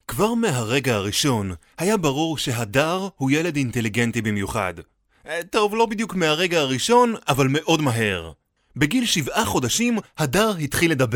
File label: Hebrew